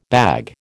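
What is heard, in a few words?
"bag", American English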